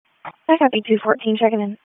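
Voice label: Police Northeast District Dispatch; wake p25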